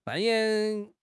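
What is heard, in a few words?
"扮演", Mandarin Chinese; HSK; HSK6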